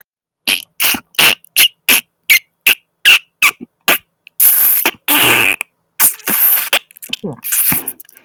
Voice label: voice message